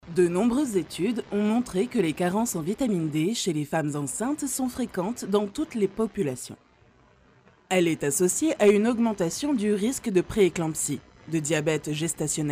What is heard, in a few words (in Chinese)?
法语女声